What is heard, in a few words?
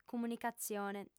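"comunicatzione", female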